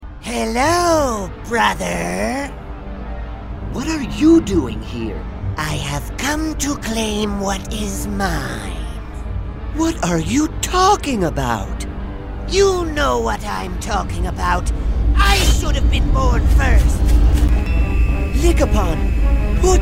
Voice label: Animation; Las Vegas-based and Los Angeles trained Voice Actor